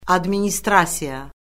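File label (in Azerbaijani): "administrasiya", Azerbaijani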